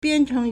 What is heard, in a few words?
"bian1cheng2", Chinese